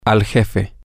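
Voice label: the boss F, Spanish, male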